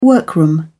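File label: "workroom", British English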